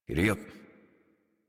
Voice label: Horse Start Adult; Warframe; Duviri

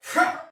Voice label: Attack, Soldier Male; Sinecure

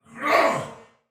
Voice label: Block Soldier Male, Battle; Dialog; Barks; Sinecure

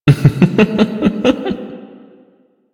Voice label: Male en, ambient dialog; Warframe; Duviri

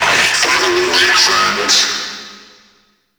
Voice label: Friendly Hack Vocal; Entrati; Necramech; NechramechHack

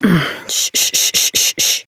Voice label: Horse Calm Adult; Warframe; Duviri

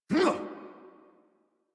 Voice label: Adult Operator Jump, en; Warframe; New War

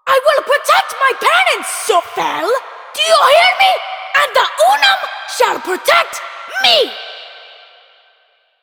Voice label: Child; Villagers; en; Ostron; Warframe; Cetus Invasion

